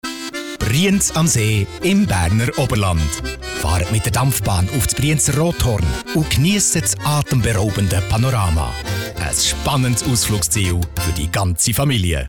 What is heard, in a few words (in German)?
Werbung CH BE; flippig frech verspielt